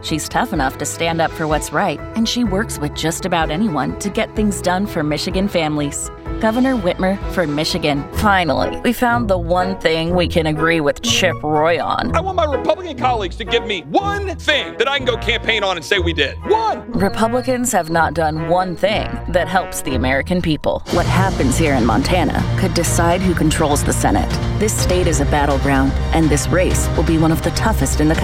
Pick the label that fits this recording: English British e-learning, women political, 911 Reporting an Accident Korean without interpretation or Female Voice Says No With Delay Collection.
women political